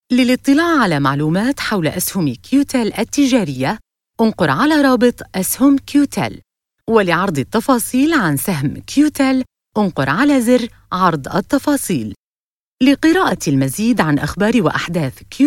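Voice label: Arabic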